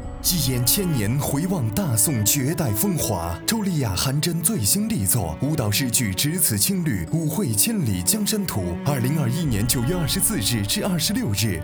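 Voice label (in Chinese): 电台广告, 男配音 中年（36-54） 普通话; 只此青绿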